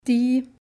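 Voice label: "die", German; Kapitel 2; Alphabet erste Schritte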